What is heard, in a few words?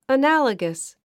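"analogous", English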